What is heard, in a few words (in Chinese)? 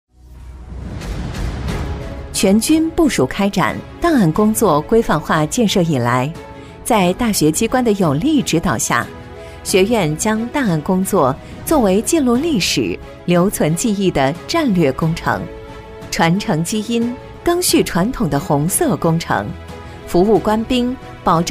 女 大气稳重; 部队专题; 全军部署开展档案; 沉稳大气; 成熟知性